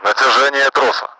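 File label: taut of rope, Russian; Mi-8MT; DCS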